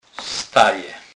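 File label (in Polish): "staje", Kurpie dialect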